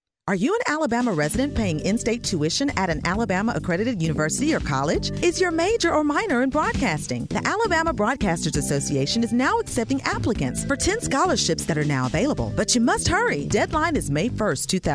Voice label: Female